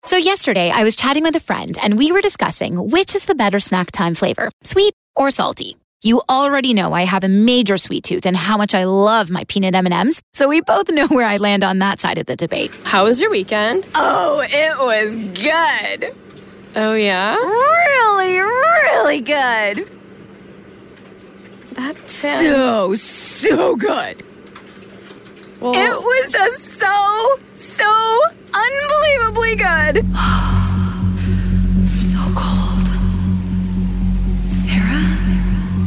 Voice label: female english canadian neutral north american accent compilation